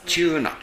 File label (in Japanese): "chuuna", minami yamagata